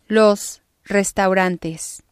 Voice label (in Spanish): "los restaurantes", spanish